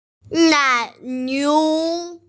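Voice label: "nai niu", Mandarin Chinese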